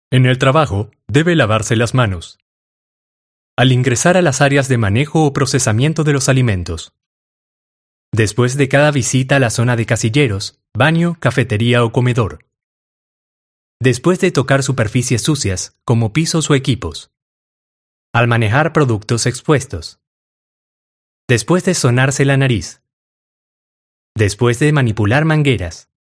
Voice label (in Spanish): neutro grave